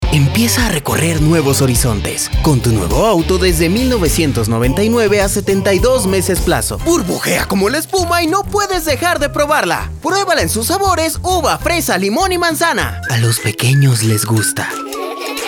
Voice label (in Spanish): Promocional